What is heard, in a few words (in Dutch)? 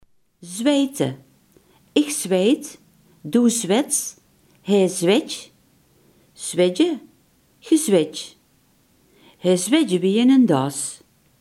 "zweten", limburgse